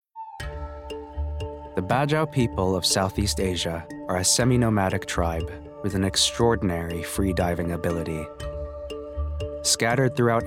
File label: Documentary